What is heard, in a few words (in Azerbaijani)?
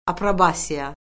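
"aprobasiya", Azerbaijani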